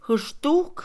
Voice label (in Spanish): siete, Mixe, Mujer; Números; INALI